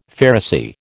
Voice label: "Pharisee", American